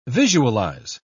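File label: "visualise", English, British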